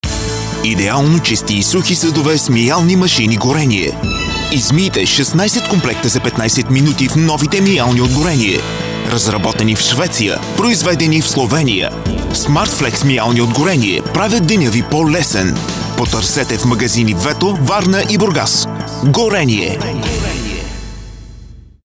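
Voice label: Bulgarian male voice over radio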